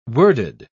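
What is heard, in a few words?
"worded", British English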